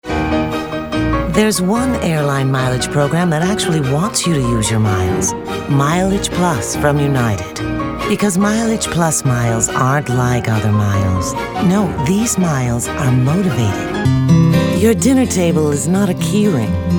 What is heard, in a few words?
Voiceover